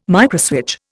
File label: "microswitch", Indian